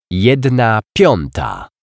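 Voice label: "jedna piata", Polish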